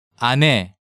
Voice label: "Ane", Korean

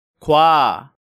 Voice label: "gwa", Korean

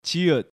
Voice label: "Jieut", Korean

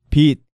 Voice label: "bit", Korean

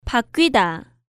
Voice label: "Bakkwida", Korean

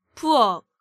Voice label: "Bueok", Korean

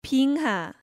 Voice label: "bingha", Korean

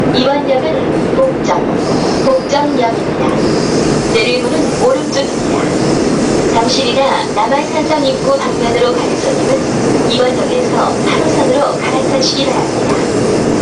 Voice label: station announcement

